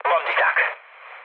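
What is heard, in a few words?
enemy detected, mood low, Hungarian; War Thunder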